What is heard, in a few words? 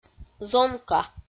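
"зонка", Komi-Zyrian, female; audio dict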